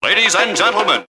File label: ladies and gentlemen announcer